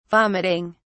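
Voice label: "vomiting", British English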